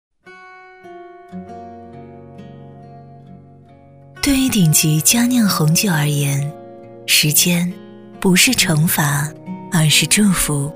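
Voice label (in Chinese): 温情介绍古堡; 金庄古堡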